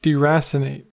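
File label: "deracinate", American English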